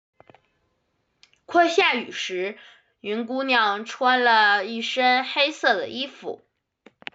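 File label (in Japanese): 子供中国語音声, L10 男性; 正解文 ：云姑娘穿了一身黑色的衣服; 認識結果：快下雨時雲姑娘穿了一身黑色的衣服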